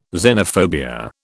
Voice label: "xenophobia", British

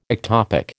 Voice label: "ectopic", American

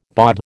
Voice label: "bawd", American

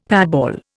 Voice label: "patball", Indian; Dictionary

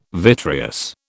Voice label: "vitreous", British

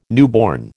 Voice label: "newborn", American

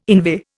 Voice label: "inveigh", Indian

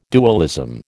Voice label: "dualism", American

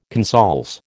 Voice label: "consols", American

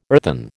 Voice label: "earthen", American

